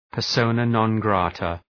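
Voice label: "persona non grata", English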